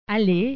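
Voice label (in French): "aller", French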